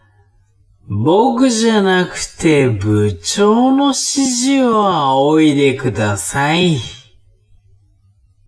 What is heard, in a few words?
man